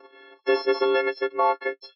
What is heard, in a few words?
deepfake CI simulated; deepfakes; hearing impaired